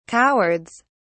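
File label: "cowards", British English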